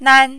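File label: "nan", Mandarin, first tone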